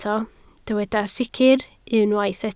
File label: "sicr", Welsh